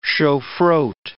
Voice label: "shofroth", English